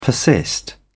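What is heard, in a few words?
"persist", British English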